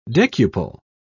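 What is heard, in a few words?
"decuple", British English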